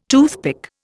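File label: "toothsome", Indian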